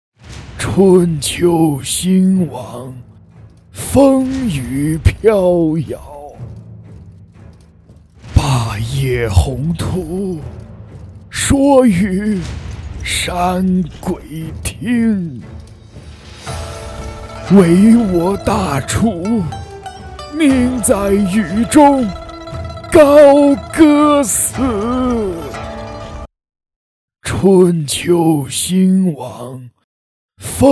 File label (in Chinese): 老年音